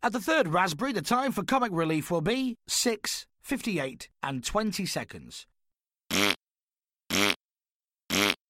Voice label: speaking clock